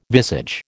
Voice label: "visage", American